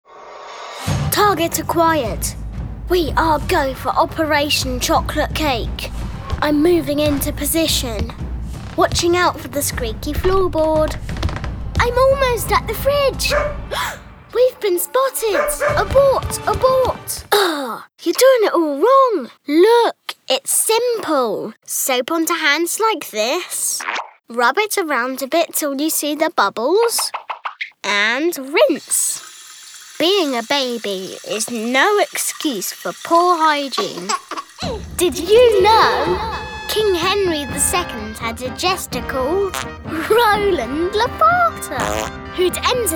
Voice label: commercial reel, Voiceover